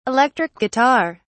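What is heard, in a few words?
"electric guitar", British English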